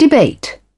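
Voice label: "Debate", British English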